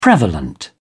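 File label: "prevalent", British English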